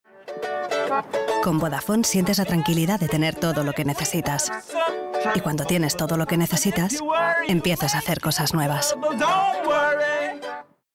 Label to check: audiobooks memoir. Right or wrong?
Wrong